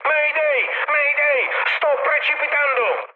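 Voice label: Italian aircraft destroyed mood high, speech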